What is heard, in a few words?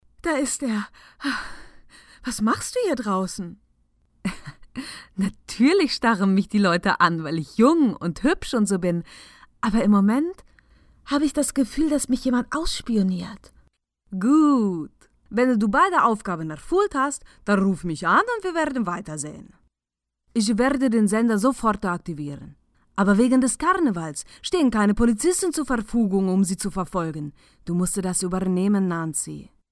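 German female voice over, character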